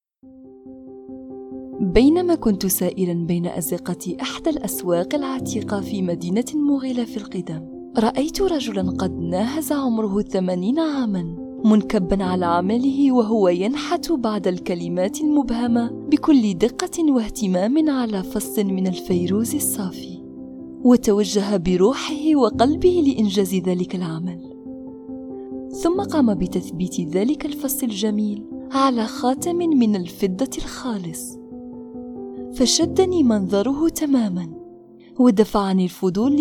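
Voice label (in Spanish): doblaje Argentina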